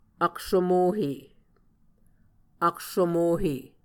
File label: "aksomohe", muscogee